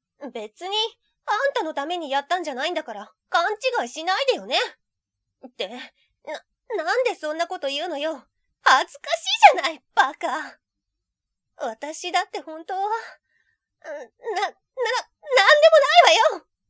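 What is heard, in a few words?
tsundere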